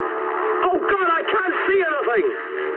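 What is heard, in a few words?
English searchlite mood high; War Thunder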